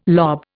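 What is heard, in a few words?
"lob", Indian English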